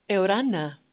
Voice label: Tahitian, hello